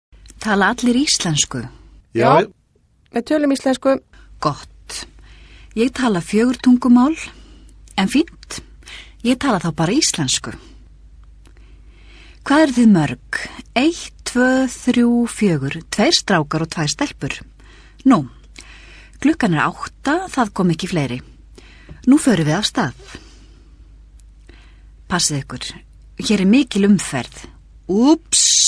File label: dialog, islandstina